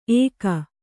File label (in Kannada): "ಏಕ", Kannada